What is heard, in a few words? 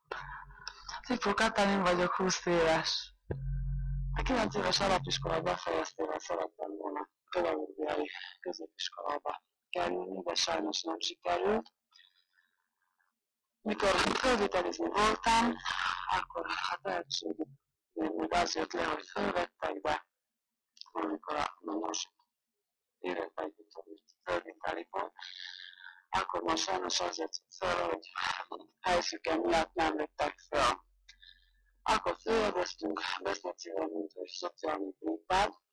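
dialect collection; nyelvjaras gyujtesek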